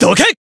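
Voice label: Voice attack Japanese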